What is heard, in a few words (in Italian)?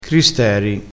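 "cristeri", dialettale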